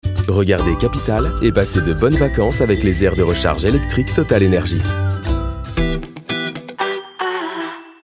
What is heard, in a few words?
BILLBOARDS TV; TOTALENERGIES